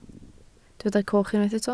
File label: "coch", Welsh